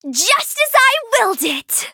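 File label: victory, English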